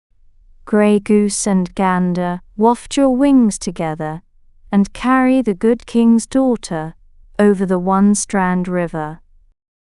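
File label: female British; Gray Goose and Gander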